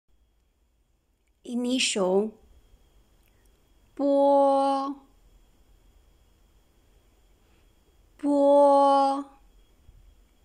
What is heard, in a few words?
initial b, Mandarin